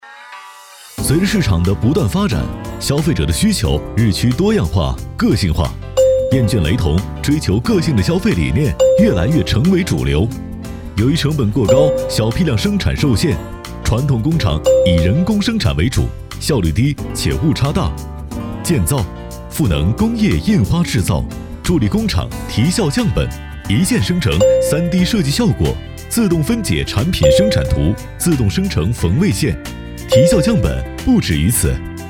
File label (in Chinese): 专题年轻轻松宣传片